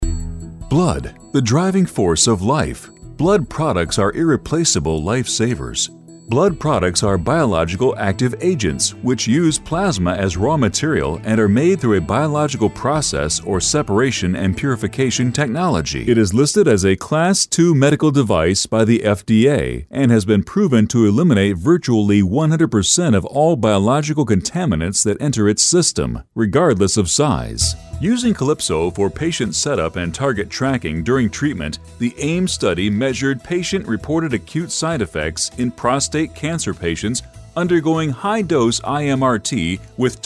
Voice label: english us and canada male narrative